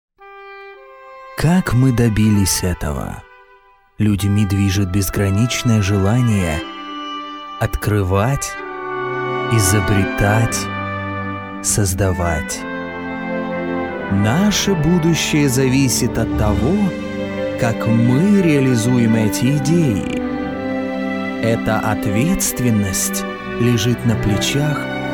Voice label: russian movie trailers; ruso (nativo)